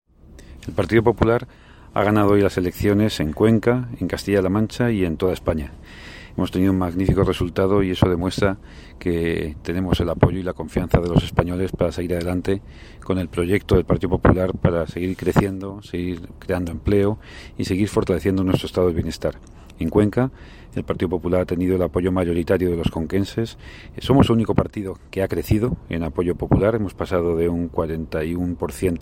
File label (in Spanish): corte de voz catala; elecciones 26j